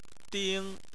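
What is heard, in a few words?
"ding" first tone, Chinese; Chinese Surnames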